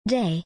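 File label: Day, British English; days-of-the-week-in-english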